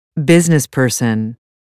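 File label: "business person", English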